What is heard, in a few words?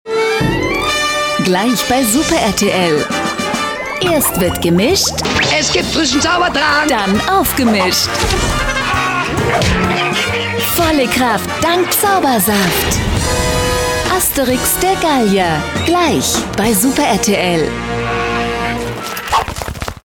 german movie trailers